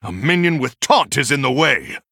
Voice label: Male Human ERROR TAUNT

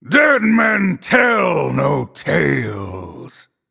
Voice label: Male Night Elf Emote Start

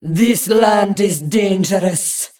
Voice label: Female Broken Play

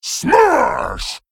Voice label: Male Ogre Attack

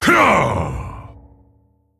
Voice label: Male Mogu Attack; Jade Warlord; Story Minion

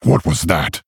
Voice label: Male Orc Oops

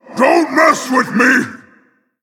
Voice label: Male Elemental Attack

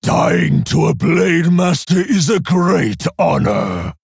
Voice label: Male Orc; Samuro; Kurtrus

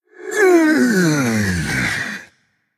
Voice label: Male Troll Undead Play

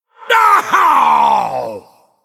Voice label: Male Human Death

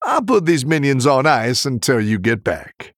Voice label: Male Human After Freezing

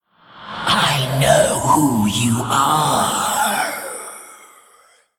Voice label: Androgynous Faceless Play

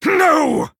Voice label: Male Night Elf Death

